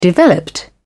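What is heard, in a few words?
"developed", British English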